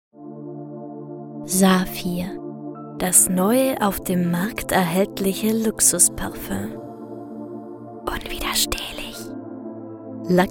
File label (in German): Werbung